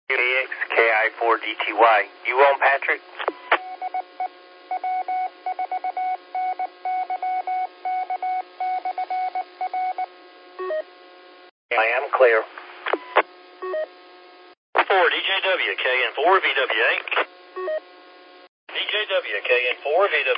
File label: qso rec simplex logic